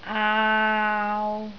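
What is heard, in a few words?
"aau3", Cantonese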